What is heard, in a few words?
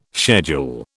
"schedule", British